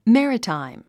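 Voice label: "maritime", English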